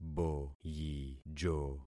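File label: "boyijo", concatenated syllables; Affixing experiment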